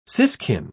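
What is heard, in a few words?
"siskin", British English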